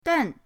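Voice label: "den", Mandarin pinyin, fourth tone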